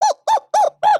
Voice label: volunteer, emotes